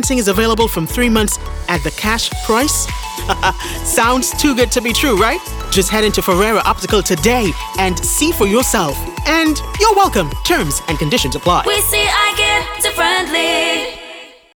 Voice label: Complete Package and Kids Wear Radio